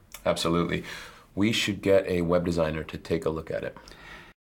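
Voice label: agreeing, American English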